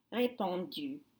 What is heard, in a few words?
"repandus", French; whispered